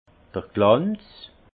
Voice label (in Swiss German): "glanz", alsace; vin; brillance